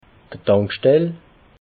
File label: "tankstell", alsace